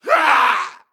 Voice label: Male Ganarg Death